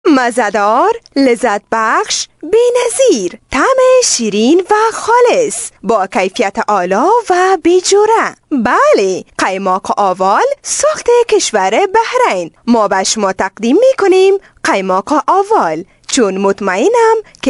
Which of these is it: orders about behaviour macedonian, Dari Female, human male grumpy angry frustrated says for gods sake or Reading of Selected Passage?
Dari Female